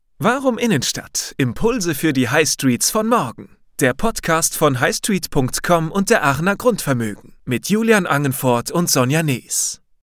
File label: german podcasts